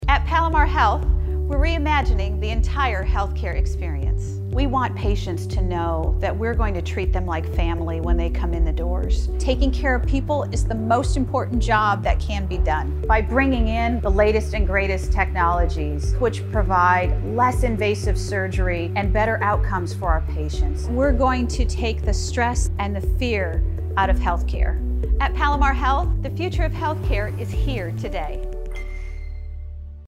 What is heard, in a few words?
RADIO SPOT, English